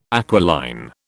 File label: "aquiline", British